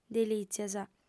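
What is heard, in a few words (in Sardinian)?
"delìtzias", female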